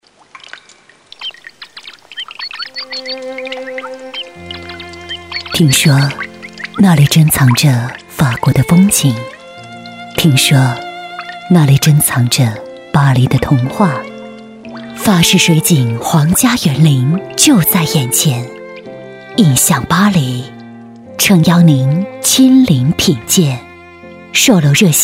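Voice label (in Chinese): 广告优雅磁性; 广告印象巴黎优雅磁性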